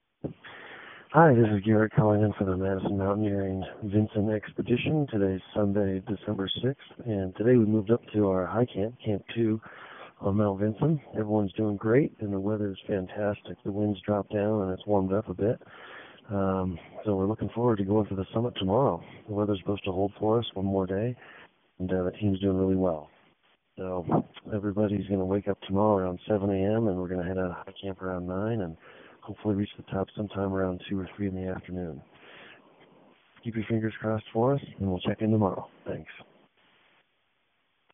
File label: voicemail